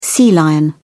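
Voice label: "sea lion", English, British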